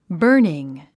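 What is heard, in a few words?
"burning", English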